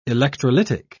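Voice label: "electrolytic", British English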